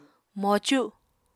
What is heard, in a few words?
"mocu", Apatani